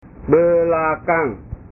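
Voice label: "belakang", Indonesian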